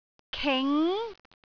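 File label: "king2", Cantonese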